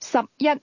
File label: "eleven", Cantonese